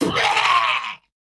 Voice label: Male Gnome Death